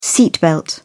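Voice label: "seat belt", British English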